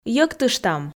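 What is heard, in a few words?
"йӧктыштам", Komi, female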